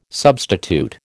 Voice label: "substitute", American

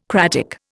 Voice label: "cratic", Indian

